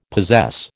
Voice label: "possess", American

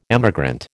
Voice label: "emigrant", American

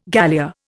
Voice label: "galea", Indian

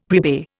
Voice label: "prepay", Indian; Dictionary

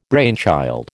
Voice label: "brainchild", American

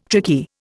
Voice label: "tricky", Indian

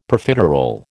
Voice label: "Profiterole", American

